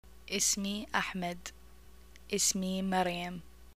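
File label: my name is, Iraqi arabic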